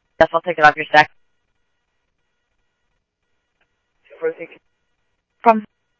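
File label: police department, scanner audio; ThompsonPeakHigh; PHOENIX PD-200 A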